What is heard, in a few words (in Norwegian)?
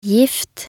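"gift", Norwegian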